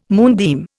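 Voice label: "moonbeam", Indian English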